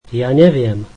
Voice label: I dont know, slovak